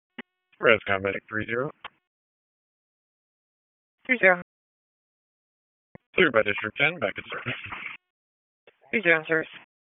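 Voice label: EMS Dispatch; wake; p25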